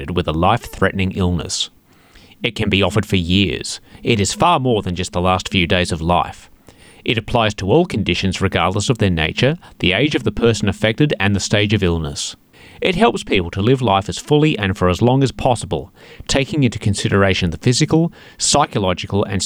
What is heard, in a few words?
Palliative Care English What is Palliative Care